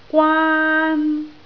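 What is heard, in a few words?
"gwaan1", Cantonese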